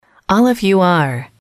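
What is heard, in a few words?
all Of You Are, english, female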